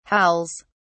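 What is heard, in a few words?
"howls", British English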